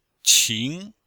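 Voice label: "qing2", Chinese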